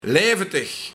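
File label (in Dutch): "Leivetig", Luik, Zonder verdoving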